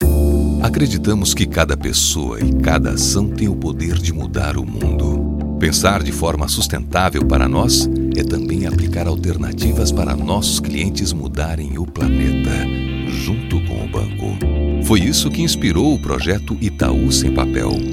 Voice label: portuguese brazilian corporate videos